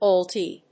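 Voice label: "owlet", English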